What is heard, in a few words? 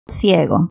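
"ciego", Spanish, cuba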